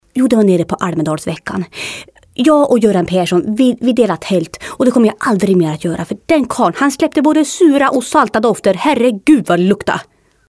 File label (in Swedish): rostprov imitationer; maud olofsson